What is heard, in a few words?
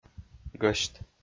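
"гӧсьт", Komi, male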